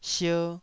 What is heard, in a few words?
"sier2", Taiwanese syllable